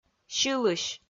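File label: "сьылысь", Komi, female; audio dict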